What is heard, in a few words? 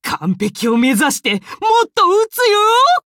upgrade, ja; Cookie Run